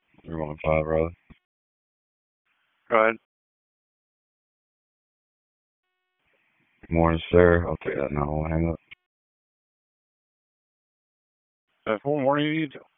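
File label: Police Northeast District Dispatch, Raleigh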